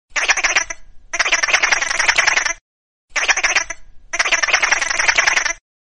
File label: funny minions voice